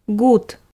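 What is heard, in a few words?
"гут", Komi, female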